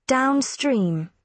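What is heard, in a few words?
"downstream", British English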